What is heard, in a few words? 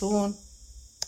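"eight", Tlacolula Zapotec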